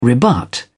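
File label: "rebut", British English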